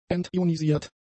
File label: "entionisiert", German; adjectives